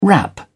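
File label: "wrap", British English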